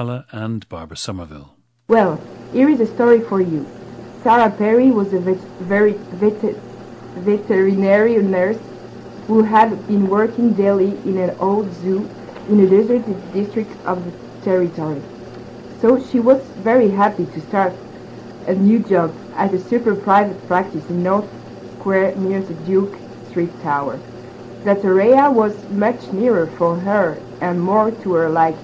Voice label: france, dialects

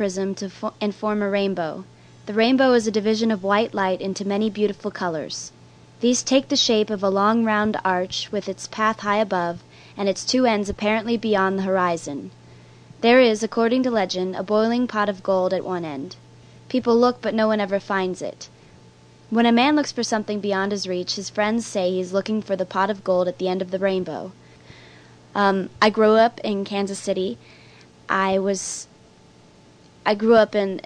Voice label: missouri, dialects

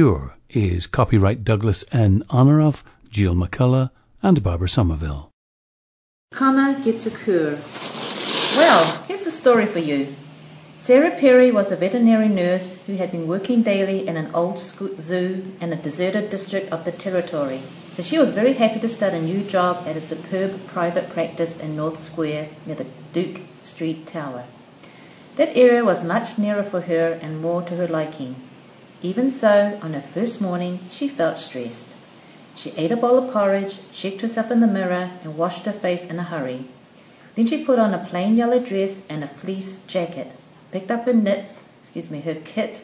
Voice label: New Zealand

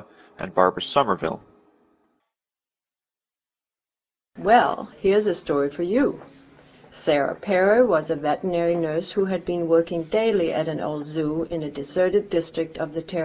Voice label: denmark, dialects

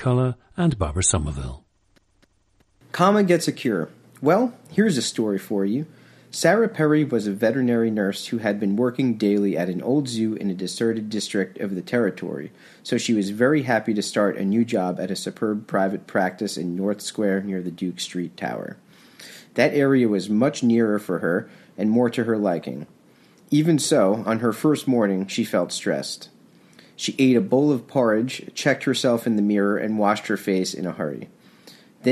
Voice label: new jersey, dialects

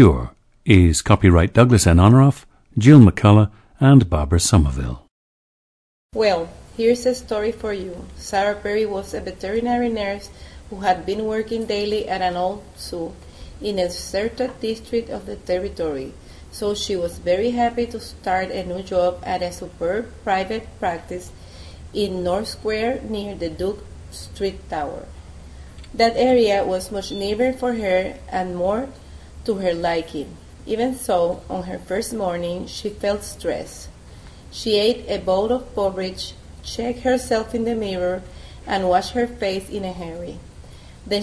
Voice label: puerto rico

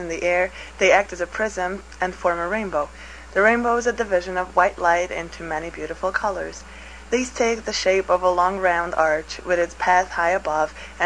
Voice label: hungary, dialects

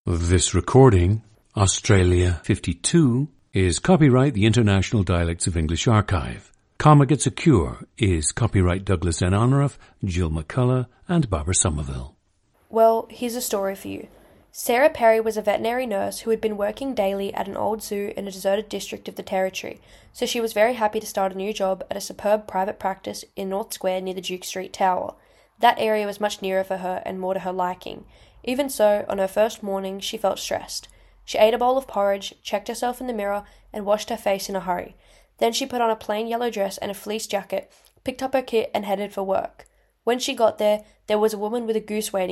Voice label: australia; Australia 52; International Dialects of English Archive; an 18-year-old woman from Sydney, Australia

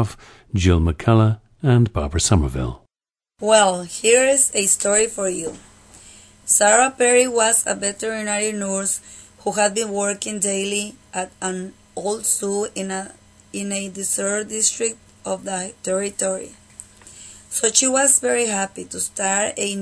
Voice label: jalisco, dialects

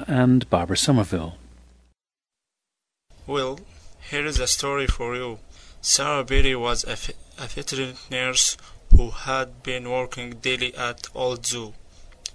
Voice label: saudi arabia, dialects

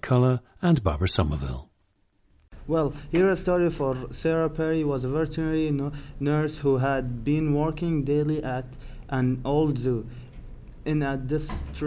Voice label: saudi arabia, dialects

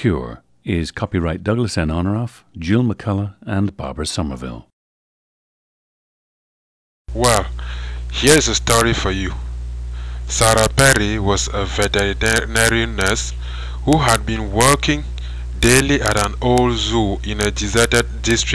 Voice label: cameroon

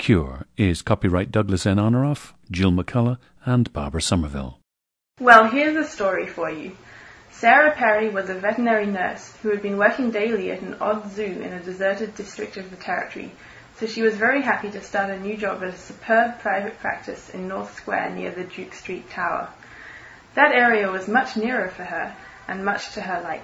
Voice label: australia

